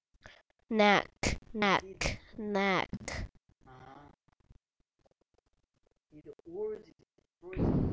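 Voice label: "neck", spoken word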